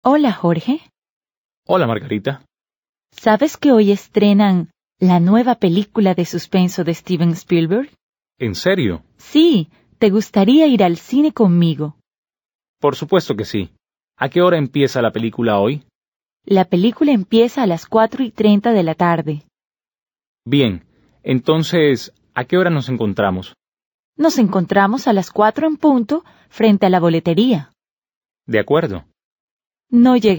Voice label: Dialogue, Spanish; Learn Conversational Spanish Now